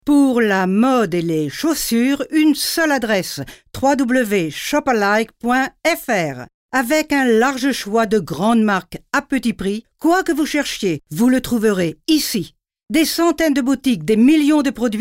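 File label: French, Werbung Dynamisch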